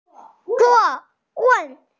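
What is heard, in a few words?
"seat", hearing impaired; zuo4wei4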